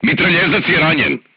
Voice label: voice message gunlayer wounded, crew tanks serbian